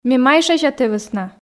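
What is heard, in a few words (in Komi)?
ме майшася тэ вӧсна, Komi, female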